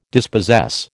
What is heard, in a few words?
"dispossess", American